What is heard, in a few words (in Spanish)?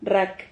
"rrak", Silabas